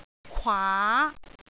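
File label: "kwaa2", Cantonese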